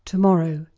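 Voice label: "tomorrow", English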